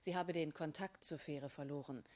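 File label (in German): "faehre", German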